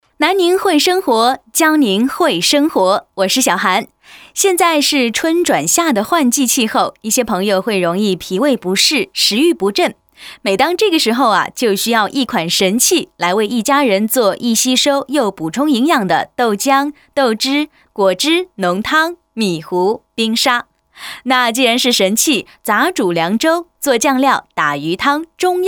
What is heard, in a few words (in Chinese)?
女 主持语气; 神器